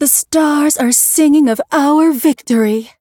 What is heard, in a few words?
victory, en; Cookie Run